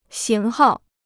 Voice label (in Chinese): "型号", Chinese